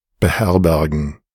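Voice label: "beherbergen", German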